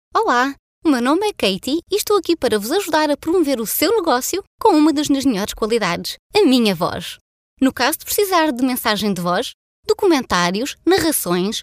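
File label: Portuguese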